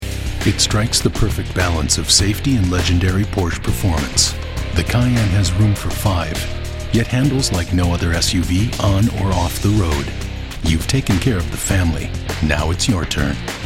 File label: Commercial; PORSCHE